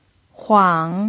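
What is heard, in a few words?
"huang" third tone, pinyin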